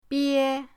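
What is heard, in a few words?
"bie", Mandarin pinyin, first tone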